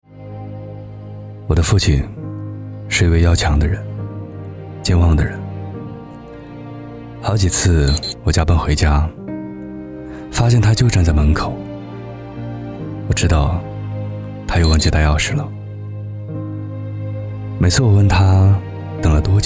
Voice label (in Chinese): 男 走心; 我的父亲